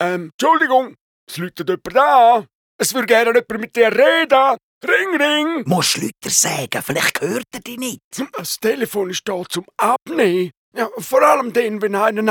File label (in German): Klingelton Ehh tschudligung; Gian und Giachen; graubuenden